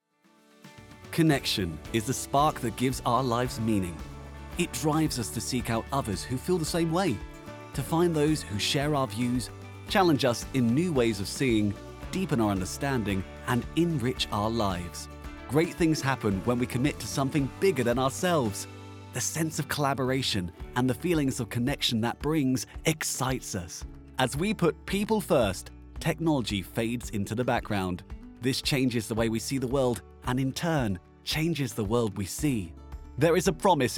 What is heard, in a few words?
Corporate; Microsoft